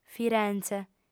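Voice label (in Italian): "firenze", lingua sarda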